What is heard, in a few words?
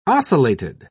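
"ocellated", British English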